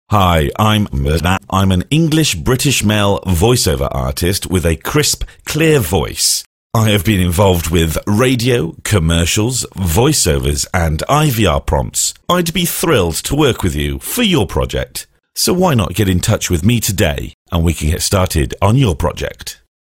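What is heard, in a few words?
english male; lektoring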